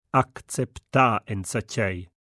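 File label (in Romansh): Acceptar enzatgei, Rätoromanische Hörprobe; Soundproben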